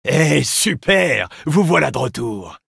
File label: Dialogue greeting